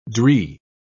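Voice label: "dree", British English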